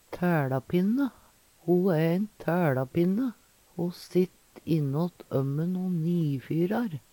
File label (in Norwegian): taelapinne, Numedalsmål; Substantiv hankjønn